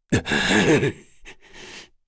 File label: Sad; Dakaris; Kings Raid